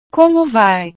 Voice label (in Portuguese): "como vai", portugues